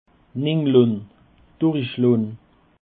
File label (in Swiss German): "ninlon", alsace